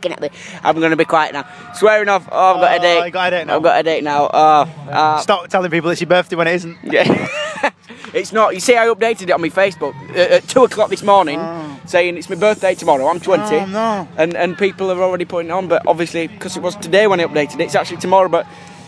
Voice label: cheesy helium

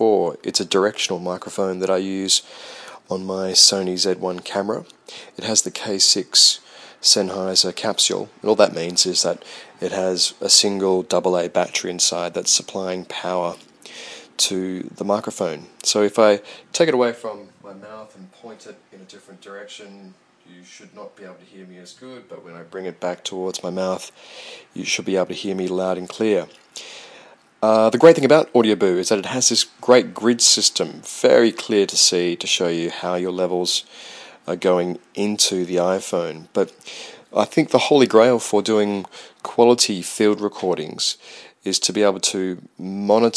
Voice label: new mic cable model test

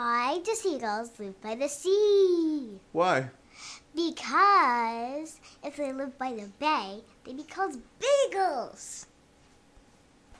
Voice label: a seven year old explains seagulls

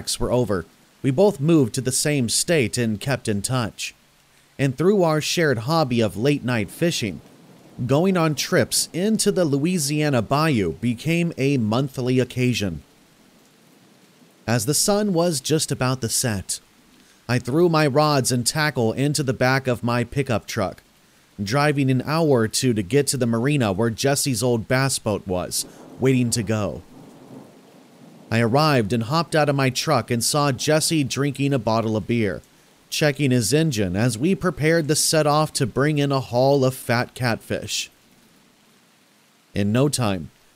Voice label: swamp horror stories with ambient rain; black screen for sleep scary stories

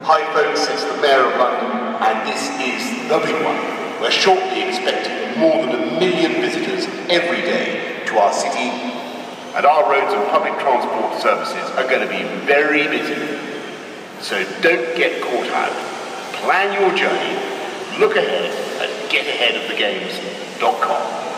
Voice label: announcement at London Bridge station

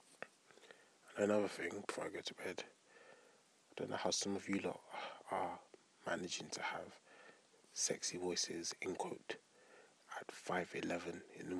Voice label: sexy voices

